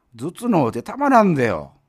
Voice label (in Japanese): man, zutunoute; hogen